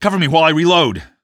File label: cover while reload, male; Half-Life 2